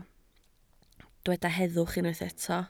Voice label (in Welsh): "heddwch", Welsh